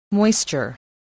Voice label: "moisture", voice, spelling